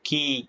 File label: short voyels arabic alphabet